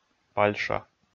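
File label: "пальша", Komi, male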